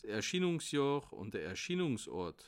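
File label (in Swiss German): "erschienungsjohr", Bas Rhin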